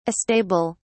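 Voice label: "astable", British English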